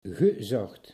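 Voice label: "gezocht", Dutch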